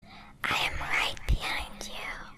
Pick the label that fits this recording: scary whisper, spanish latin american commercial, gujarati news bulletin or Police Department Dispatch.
scary whisper